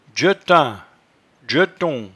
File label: "djetun", patois jura